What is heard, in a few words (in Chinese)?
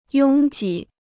"拥挤", Chinese